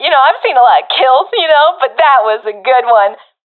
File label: sees enemy killed, professional fem; vo; Counter Strike Model Pack; CS:GO